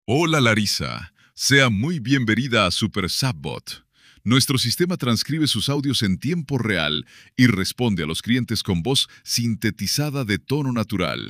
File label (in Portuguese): audio agente espanhol